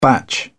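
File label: "batch", British English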